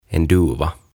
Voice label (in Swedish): "En duva" "Kyyhkynen"